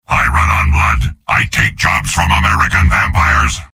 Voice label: soldier robot; mvm

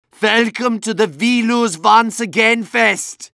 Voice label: medic jeers; tf2

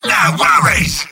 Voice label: sniper positive vocalization; tf2; mvm

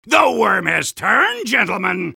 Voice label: soldier cheers; vo; tf2

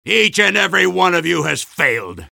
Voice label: soldier jeers; vo; tf2

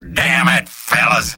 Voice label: engineer negative vocalization; tf2; mvm

